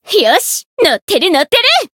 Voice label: growth, jp; altena

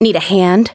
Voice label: variable chant; felmina

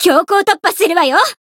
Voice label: limit break, jp; renri

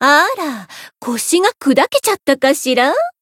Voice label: win, jp; sherinu

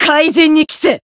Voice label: Voice finish jp

